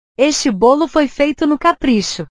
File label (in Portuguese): "caprichar", portugues